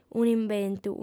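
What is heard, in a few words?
"un'imbentu", Sardinian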